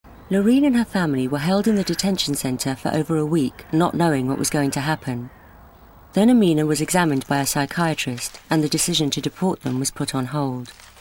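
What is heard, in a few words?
British, voice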